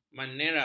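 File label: "manera", Malay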